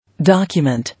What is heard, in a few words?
"Document" English